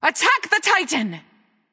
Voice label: vox attack m; smite; nuwa; voicepack